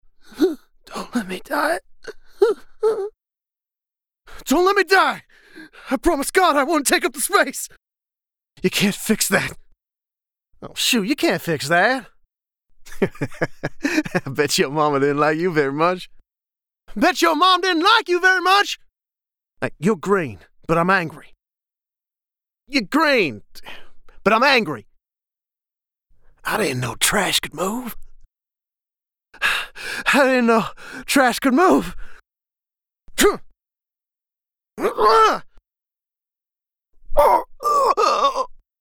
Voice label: Recruit Male